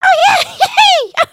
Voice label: mission giver kind succeed, emotes